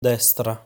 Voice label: "Destra", Italian